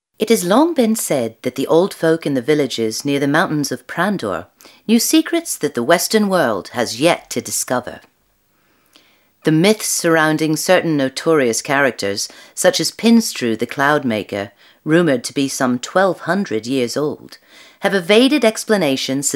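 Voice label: F EN documentary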